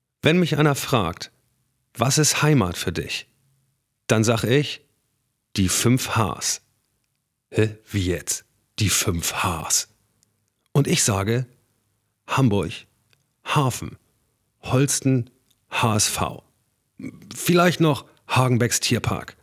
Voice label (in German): Werbung norddeutsch Bier